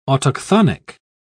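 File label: "autochthonic", British English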